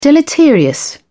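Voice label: "deleterious", British English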